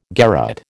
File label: "garotte", American